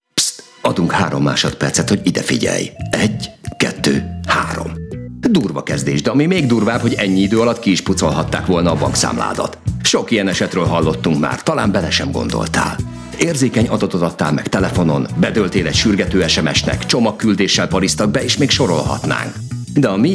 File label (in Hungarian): radio spot; a kulcs te vagy